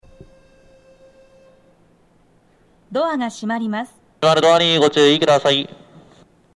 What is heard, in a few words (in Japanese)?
扉閉め放送, 駅員放送が被っています。; 東京メトロ 日比谷線